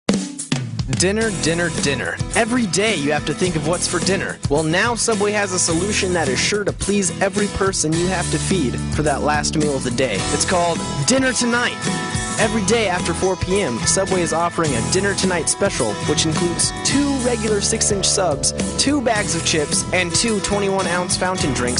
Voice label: Commercial; Dinner Subway